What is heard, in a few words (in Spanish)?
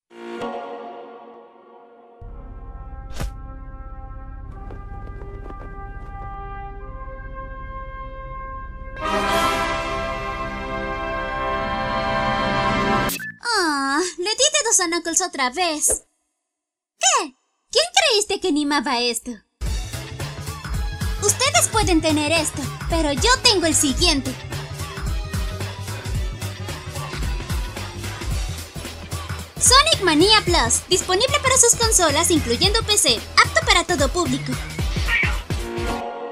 comercial audio espanol; Sonic mania plus